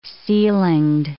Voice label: "ceilinged", English